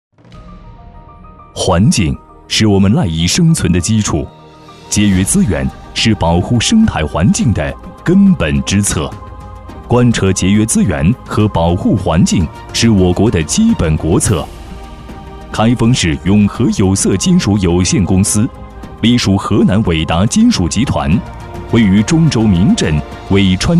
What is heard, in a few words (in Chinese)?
男, 专题, 企业专题